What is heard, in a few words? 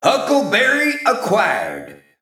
Male Mech Recruit Medium Minion